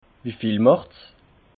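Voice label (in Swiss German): "wie viel machts", Alsatian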